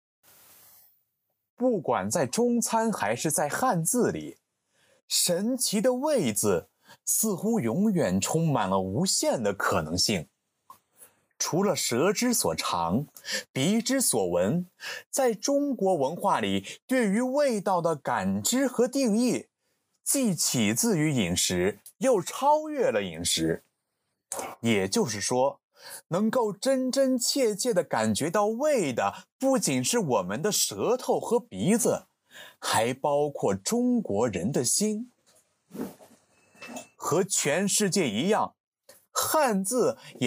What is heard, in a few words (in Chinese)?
配音